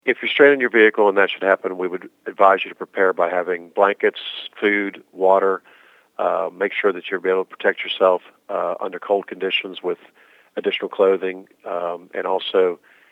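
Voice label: winter driving, radio; penndot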